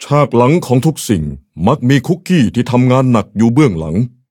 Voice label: level up, th; cookierun